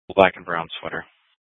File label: Dispatch; Scottsdale